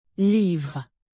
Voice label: "livre", alphabet fr native

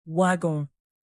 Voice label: "wagon", French native; alphabet fr

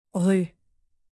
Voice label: "rue", French native; alphabet fr

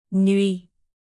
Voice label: "nuit", French native; alphabet fr

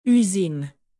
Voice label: "usine", alphabet fr native

